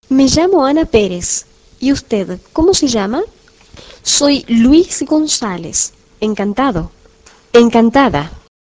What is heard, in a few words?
spanish